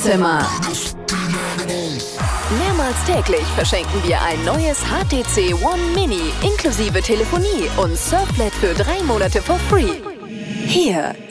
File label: station voice radio gong medley